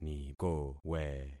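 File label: "nikowe", concatenated syllables; Affixing experiment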